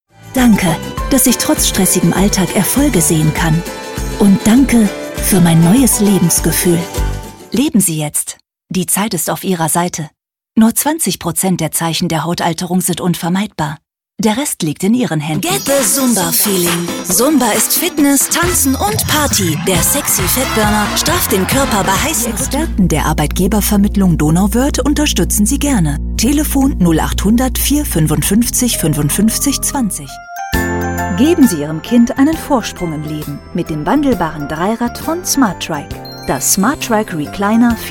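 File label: female, German, commercial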